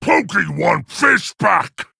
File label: Male Quilboar Play